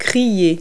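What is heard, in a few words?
"scream", verb; Multi-Lingual Talking Picture Dictionary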